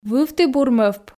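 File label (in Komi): вывті бур мӧвп, Komi, female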